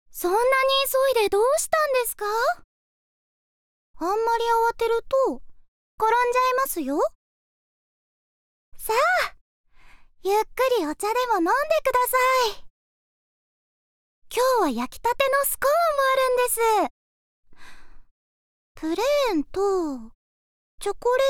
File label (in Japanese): 女の子